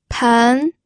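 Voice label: "pen", Mandarin Chinese, second tone; pinyin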